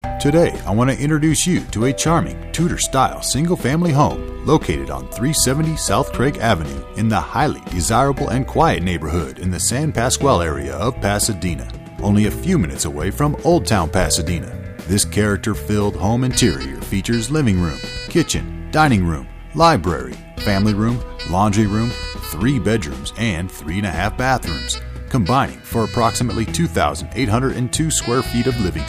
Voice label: Radio Ad